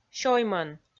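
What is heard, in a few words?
"сёймӧн", Komi, female; kpv audio dict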